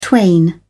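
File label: "twain", English, British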